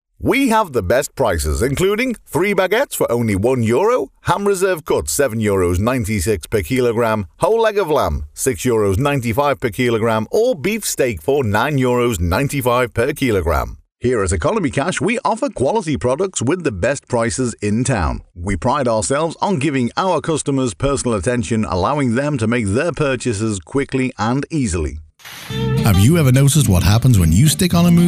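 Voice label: English male; lektoring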